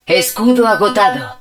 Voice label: Shield Depleted, Spanish